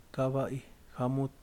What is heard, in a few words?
"yegua", Yaqui; Vocabulary